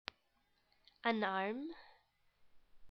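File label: "an arm", English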